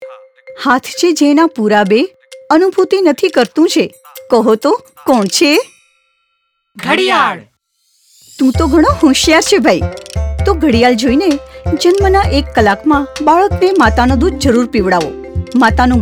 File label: Early Breastfeeding Gujarati, Audio Spot; poshan